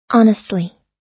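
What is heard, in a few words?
"honestly", US English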